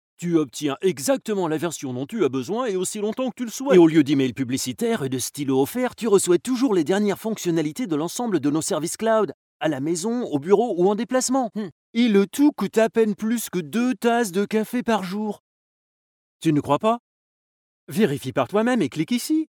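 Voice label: French, France, male, voice over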